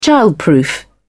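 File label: "childproof", British English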